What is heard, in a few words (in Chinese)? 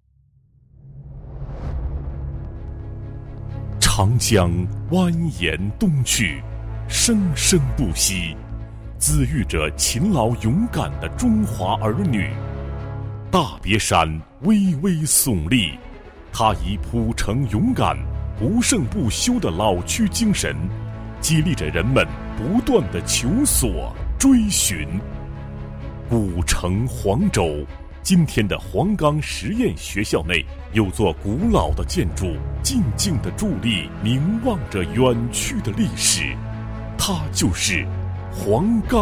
男 专题 大气, 国语男声配音; 黄粥府师范学堂